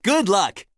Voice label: Emote Good Luck; Quincy